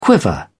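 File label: "quiver", English, uk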